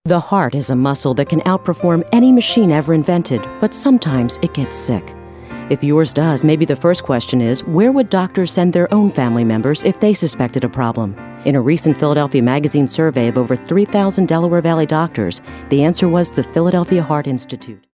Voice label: 40s 50s Female